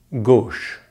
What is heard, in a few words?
"gauche", French; easypronunciation; Consonantes en francés